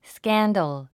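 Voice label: "scandal", English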